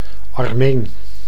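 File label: "Armeen", Dutch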